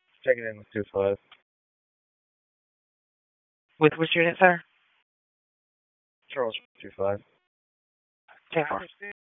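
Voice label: Police Southeast District Dispatch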